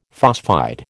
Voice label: "phosphide", American